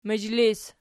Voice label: "mizhlis", Archi

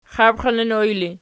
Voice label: xabxilinoj erg, archi

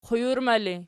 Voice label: "qujurma", Archi

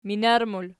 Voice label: "minar", Archi

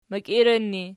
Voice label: "mikiiran", Archi

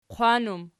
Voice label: "qwan", Archi

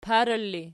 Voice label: "parala", Archi

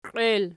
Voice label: "qiel", Archi

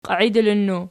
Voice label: "qiaidilinnu", Archi

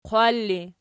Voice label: "qwal", Archi

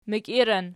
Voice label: "mikiiran", Archi

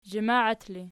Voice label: "zhamaat", Archi

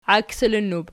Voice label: "iaksilinnub", Archi

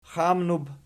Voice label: "xamnub", Archi

